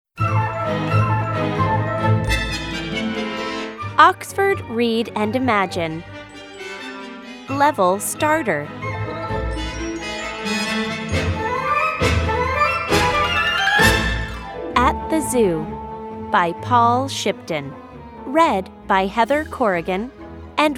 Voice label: At the Zoo US English; AmE; logos-english; oxford read and imagine